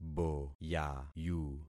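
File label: "boyayu", concatenated syllables; Affixing experiment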